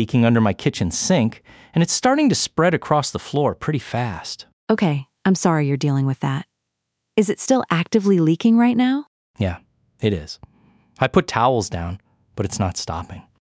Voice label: plumbing sample call